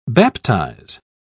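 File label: "baptise", British English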